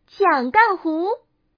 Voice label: qiangganghu, changsha man; mahjongjinghai

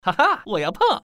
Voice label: peng, putonghua, man; mahjong paohuzi

